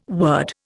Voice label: "word", Indian

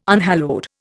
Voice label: "unhallowed", Indian

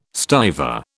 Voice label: "stiver", British

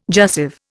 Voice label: "jussive", Indian

